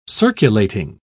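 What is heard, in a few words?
"circulating", British English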